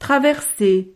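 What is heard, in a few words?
"traverser", French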